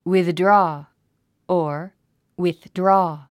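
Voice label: "withdraw", English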